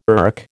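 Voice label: "erk", American English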